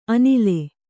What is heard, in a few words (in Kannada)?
"ಅಣಲ್", kannada